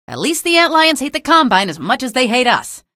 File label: alert antlions, female; video games; npc; source engine; episodic; episode 1